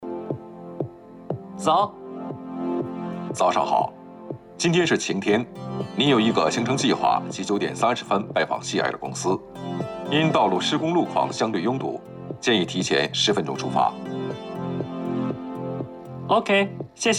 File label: foreigner